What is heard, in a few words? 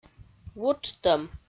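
"вуджтӧм", Komi, female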